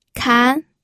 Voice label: "kan2", pinyin